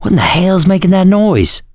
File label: heard special, survivor voice; Left 4 Dead 2; mechanic